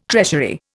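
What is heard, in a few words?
"treasury", Indian English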